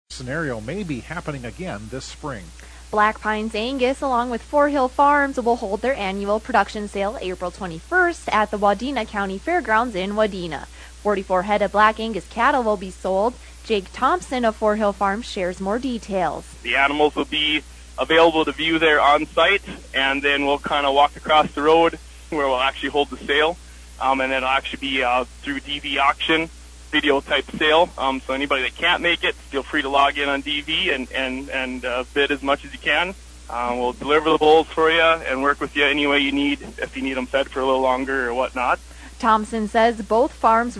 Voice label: air check; Pines Angus